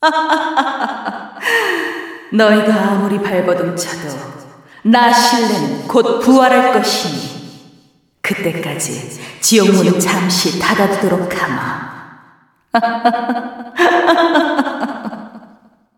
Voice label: cinematic voice; lineage2

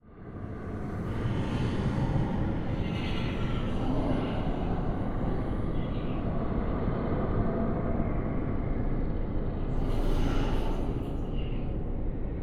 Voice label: cinematic voice; lineage2

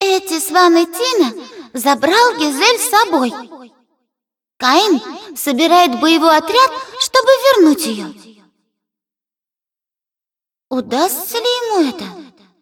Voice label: cinematic voice, ru; lineage2

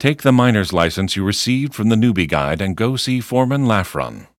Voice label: tutorial voice; lineage2

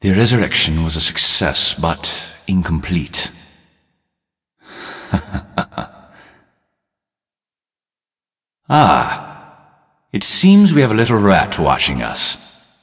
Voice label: cinematic voice; lineage2

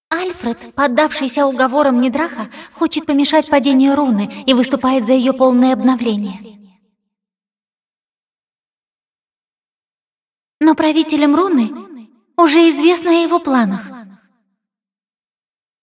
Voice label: cinematic voice, ru; lineage2

